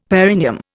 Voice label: "perineum", Indian; Dictionary

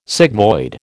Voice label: "sigmoid", American

